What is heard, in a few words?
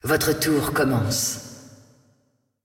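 announcer female tournaments round start; lol; clash vo; fr fr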